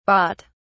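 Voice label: "bharat", British English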